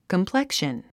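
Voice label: "complexion", English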